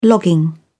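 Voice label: "logging", British English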